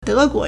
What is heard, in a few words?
"de2 guo2", Chinese